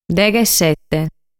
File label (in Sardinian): "Deghesete", Sardu